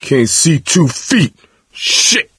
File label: misc directional, coach, survivor voice